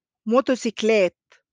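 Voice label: "موتوسيكلات", Arabic; motorcycles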